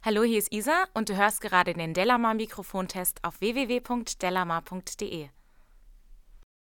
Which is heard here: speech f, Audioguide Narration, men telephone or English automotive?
speech f